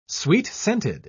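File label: "sweet-scented", British English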